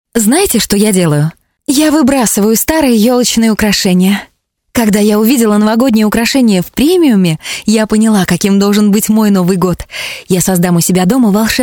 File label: diktor